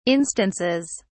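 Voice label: "instances", British English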